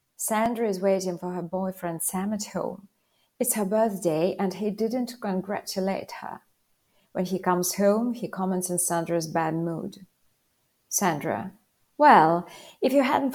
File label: CONDITIONAL MIX DIALOGUE FOR PRACTICE